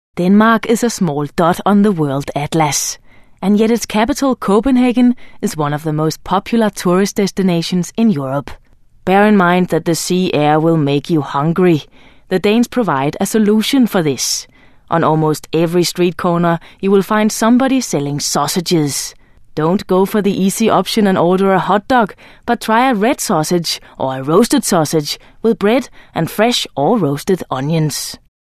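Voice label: Danish English; Danish, Scandinavian, Male, Home Studio, 20s-30s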